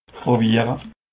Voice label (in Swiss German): "browiere", Alsace